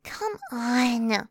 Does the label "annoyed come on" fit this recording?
Yes